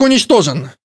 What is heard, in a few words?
Speech Pilots Destroy; World Of Warships; Com Richard